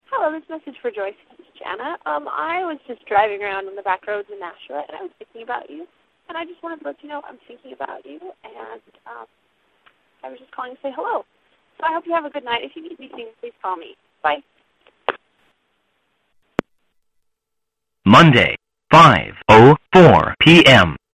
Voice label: calls day after birthday, answering machine messages